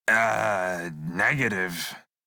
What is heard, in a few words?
Crew Reject Male en; Dialog; Warframe; Railjack Crew; Red Veil